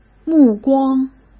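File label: "目光", Mandarin Chinese; hsk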